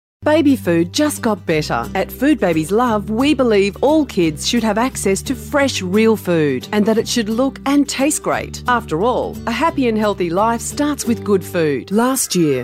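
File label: English Australian, female, voice over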